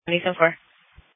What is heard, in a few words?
Police Dispatch